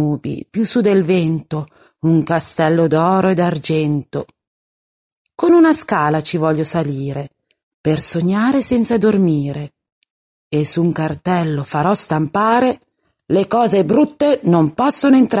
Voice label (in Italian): filastrocca